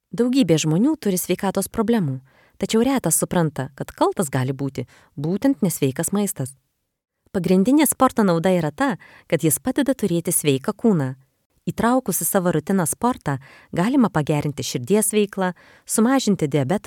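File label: Lithuanian F Narration, female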